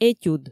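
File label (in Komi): "этюд", Komi, female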